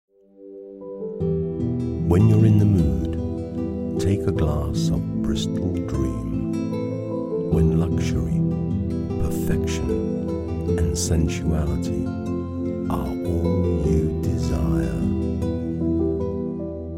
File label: Sophisticated